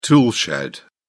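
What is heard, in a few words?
"toolshed", British English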